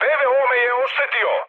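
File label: Serbian damaged aa mood high, speech; War Thunder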